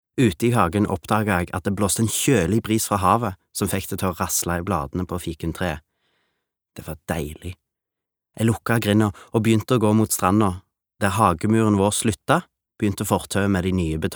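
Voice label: audio book stavanger, norwegian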